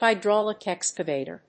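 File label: "HYDRAULIC EXCAVATOR", English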